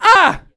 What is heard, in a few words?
pain, hostage; Counter-Strike Global Offensive